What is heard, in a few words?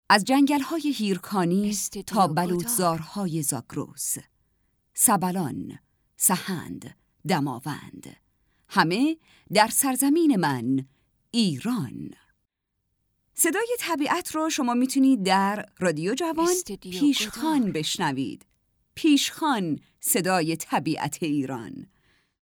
radio